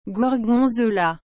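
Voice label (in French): "gorgonzola", French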